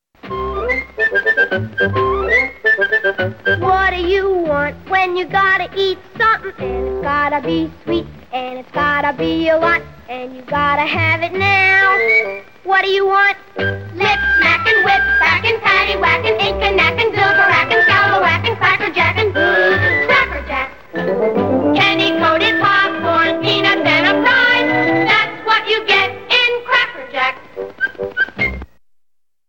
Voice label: TV Commercials - Cracker Jacks (Candy Coated Popcorn, Peanuts & a Prize)